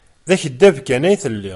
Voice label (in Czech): berberština